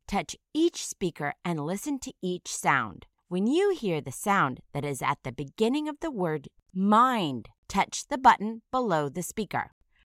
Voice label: "mind", initial phoneme isolation